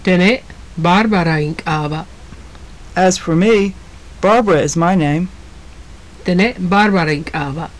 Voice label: conversation, maya; JUNP'IIT MAYA